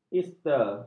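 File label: "easter", Malay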